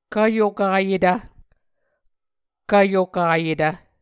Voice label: "kvyokayetv", Muscogee; Mvskoke Words with Voicing Consonants; Martin, Jack B., and Margaret McKane Mauldin. 2023. A Dictionary of Creek/Muskogee; SIL International